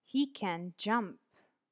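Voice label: "he can jump", English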